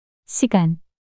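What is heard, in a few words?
"시간" Korean, female; 단어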